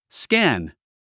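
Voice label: "scan", 英単語 発音; skǽn スキャン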